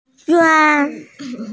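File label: "juan1", Mandarin Chinese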